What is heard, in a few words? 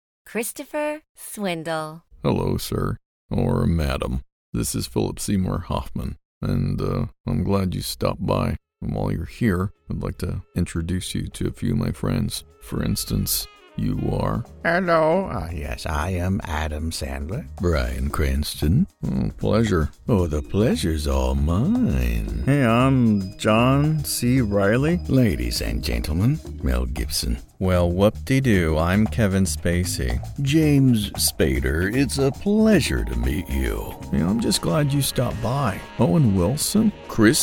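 animation celebrity impressions, men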